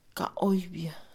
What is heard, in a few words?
"demonio", Mixe, Mujer; Religión; INALI